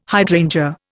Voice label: "hydrangea", Indian